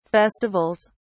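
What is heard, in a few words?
"festivals", British English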